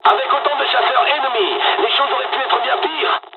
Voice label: dialog, dialogs french; War Thunder